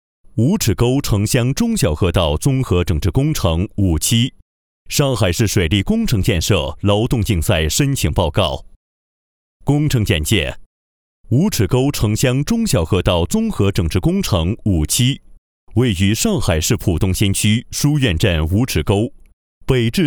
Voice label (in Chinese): 男 工程汇报 工程建设